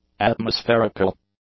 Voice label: "atmospherical", English; adjectives